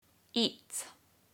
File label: "EAT" in British English